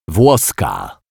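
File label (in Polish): "włoska", Polish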